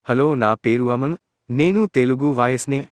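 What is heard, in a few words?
Telugu, India; iOS 26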